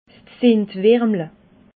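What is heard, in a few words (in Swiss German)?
"zindwirmle", Alsatian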